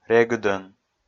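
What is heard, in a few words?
"регыдӧн", Komi, male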